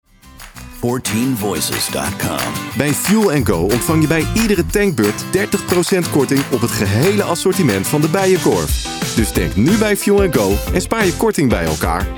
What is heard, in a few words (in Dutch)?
Commercial